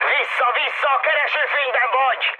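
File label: Hungarian searchlite mood high, speech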